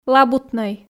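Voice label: "лабутнӧй", Komi, female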